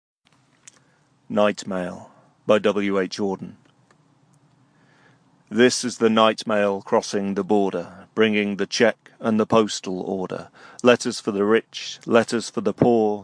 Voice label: read two ways, Train Rhythm, Nostalgic, English Accent; American Accent; Night Mail by W H Auden